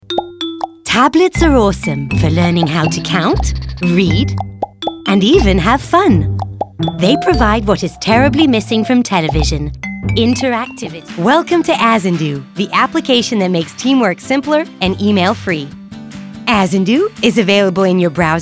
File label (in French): Demo voix